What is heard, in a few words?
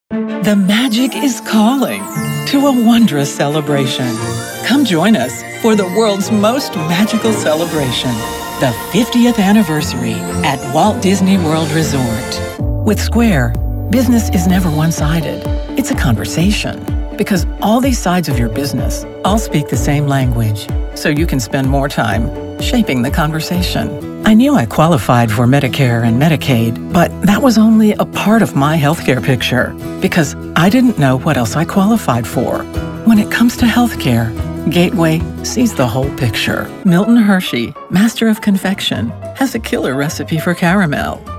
Commercial